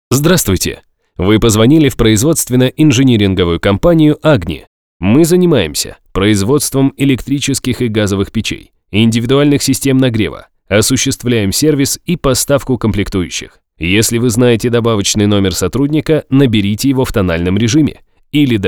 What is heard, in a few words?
M IVR voice